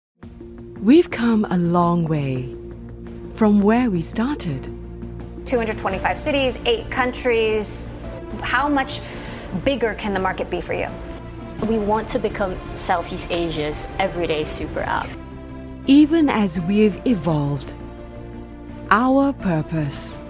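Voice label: British English Corporate; GoLocalise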